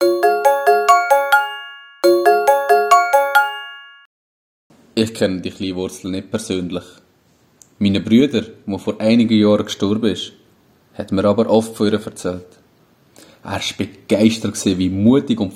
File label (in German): Posten Stier mit Jingle